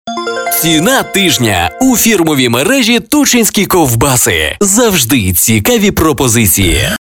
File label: ukrainian commercial